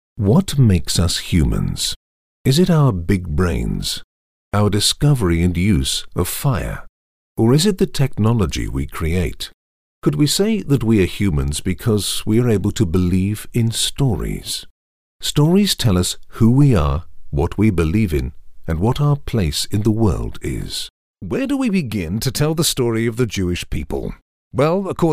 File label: Informative Narrative